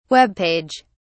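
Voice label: "webpage", British English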